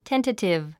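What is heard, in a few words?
"tentative", English word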